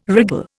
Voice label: "wriggle", Indian

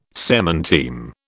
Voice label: "semanteme", British

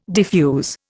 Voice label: "defuse", Indian

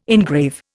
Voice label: "engrave", Indian

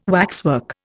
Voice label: "waxwork", Indian

